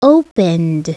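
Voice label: "opened", spoken word; Princess and the Pea; SoftKey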